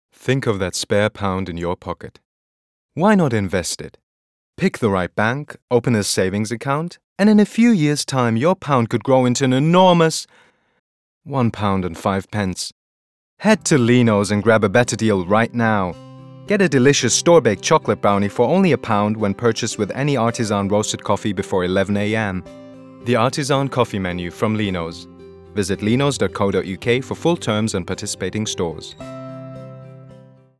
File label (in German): Werbung English